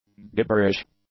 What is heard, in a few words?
"gibberish", English; nouns